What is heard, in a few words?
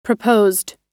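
"proposed", US English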